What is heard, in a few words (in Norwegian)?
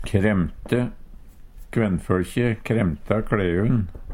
kremte, Numedalsmål; Verb